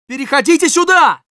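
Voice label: Scout move up, russian; "Переходите сюда!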